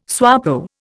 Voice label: "SWAPO", Indian